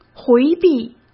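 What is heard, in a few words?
"回避", Mandarin Chinese; chinese flashcards; hsk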